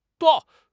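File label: "bao4", Mandarin Chinese; HSK4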